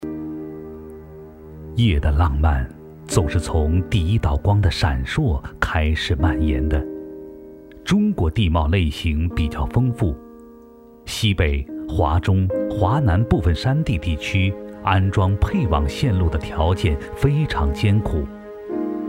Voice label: 男 企业宣传 宣传片; 中国电网

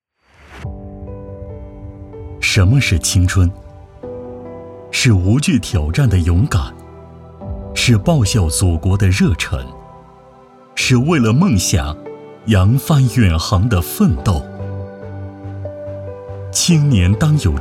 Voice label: 男, 走心, 青春

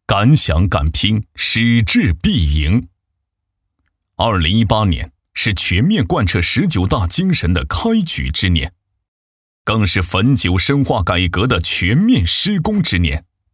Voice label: 男 专题 企业; 汾酒集团

